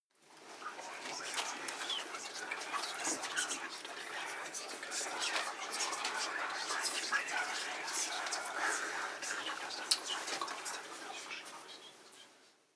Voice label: whisper german neutral short